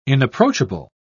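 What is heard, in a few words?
"inapproachable", British English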